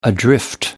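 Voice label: "adrift", British English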